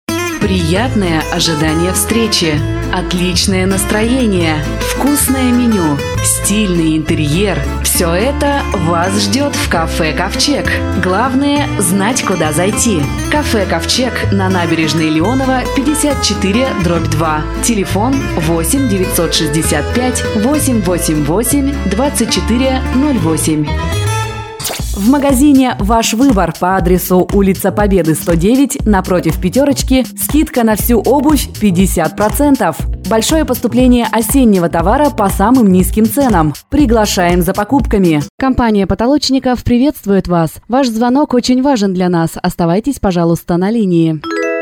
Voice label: Diktor; announcers